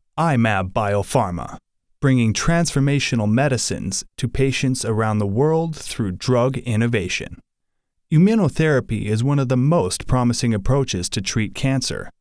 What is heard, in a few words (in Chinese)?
癌症疗法宣传 沉稳